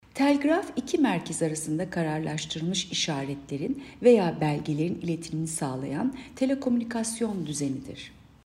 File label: turkce